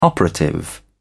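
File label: "operative", British English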